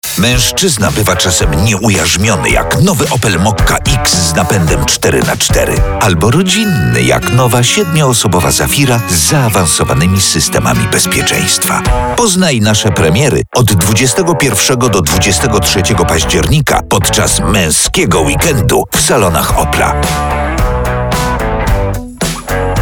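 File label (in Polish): reklama, advert; mokka zafira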